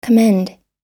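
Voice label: "commend", American English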